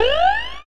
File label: goblin threat